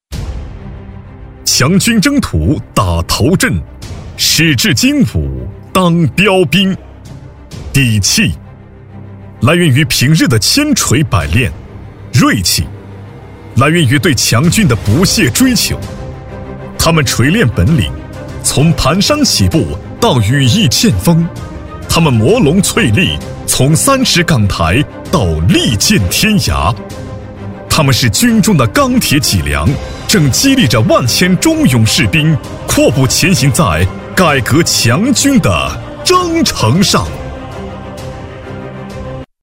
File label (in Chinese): 男 军队干练; 胜战尖兵